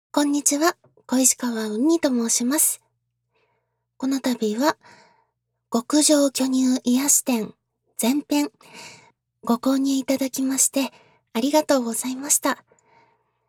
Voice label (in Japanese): フリートーク